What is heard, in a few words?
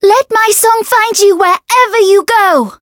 variable chant; pizzica